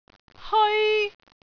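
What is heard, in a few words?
"heoi1", Cantonese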